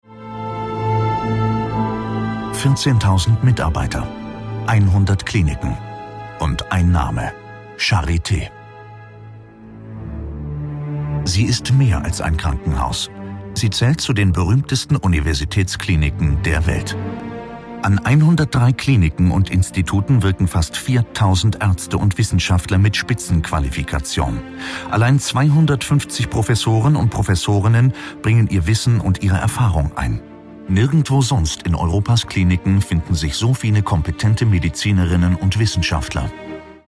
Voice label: Documentary Narrations General public, German voice-over, Descriptive and Engaged; Charity